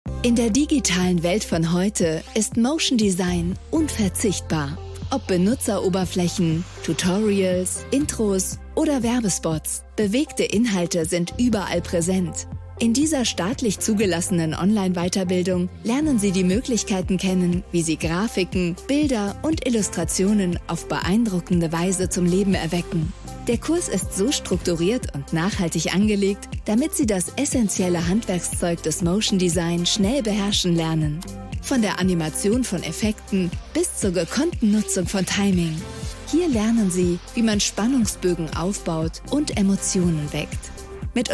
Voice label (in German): imagefilm; ofg